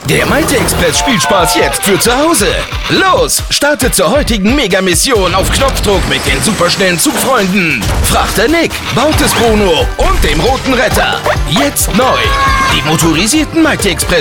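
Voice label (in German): Spots, Männer; Mighty Express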